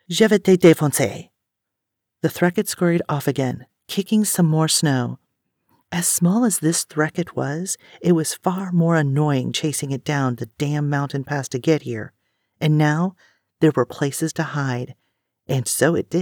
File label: French and Russian accents